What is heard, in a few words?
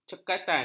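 "cekatan", Malay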